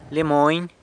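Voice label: "Lia Mony", San Lucas Quiaviní Zapotec; Monica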